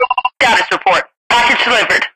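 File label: radio delivery female; svencoop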